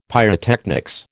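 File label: "pyrotechnics", American English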